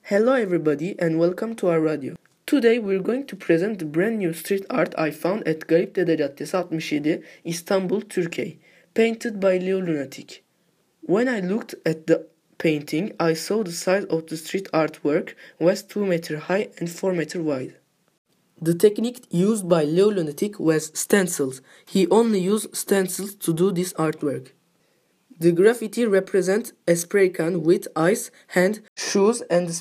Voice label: Audioguide